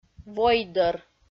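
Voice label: "войдӧр", Komi, female; audio dict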